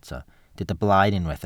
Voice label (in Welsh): "blaen", Welsh